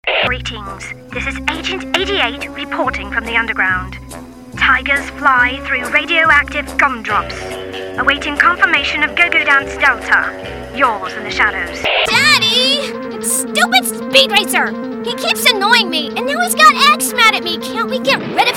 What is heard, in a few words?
VO Women Video Games; Get Reel